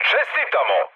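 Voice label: Serbian player killed enemy mood med, speech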